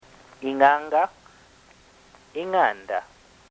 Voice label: "ingnganga ingnganda", bemba